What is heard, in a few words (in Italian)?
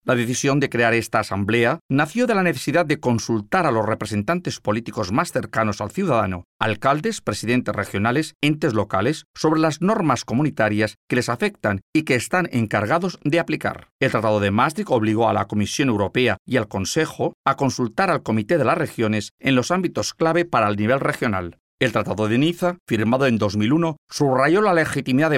spagnolo spa